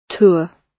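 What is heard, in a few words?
"tour", British English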